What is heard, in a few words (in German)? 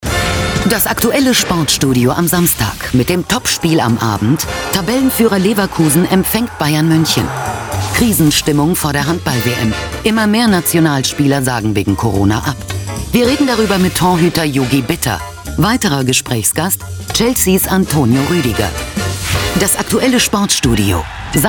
Trailer; Das aktuelle Sportstudio; ZDF